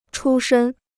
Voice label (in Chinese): "出身", Chinese